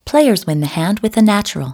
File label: player natural; TABLEGAMES